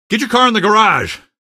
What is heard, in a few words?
get car in garage, male; Source Engine; video game; coast; barn